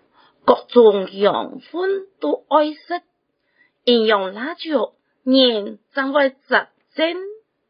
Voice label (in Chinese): (四縣)客語; 生活常規